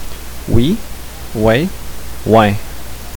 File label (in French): "oui", "ouais", "ouin", Qc